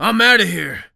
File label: Escape, Human Male; zps; HumanMale-01